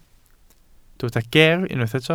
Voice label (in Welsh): "geer", Welsh